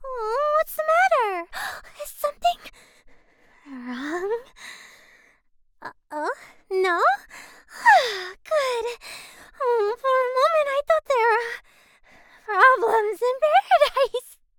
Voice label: Character Sample Sweet Sinister